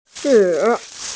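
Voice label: "zhi3", Mandarin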